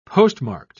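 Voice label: "postmarked", British English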